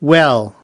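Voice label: "well", English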